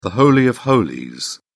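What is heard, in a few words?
"holy of holies", British English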